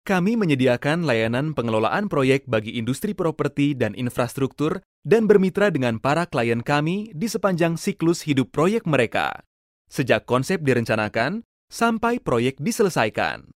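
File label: Indonesian narration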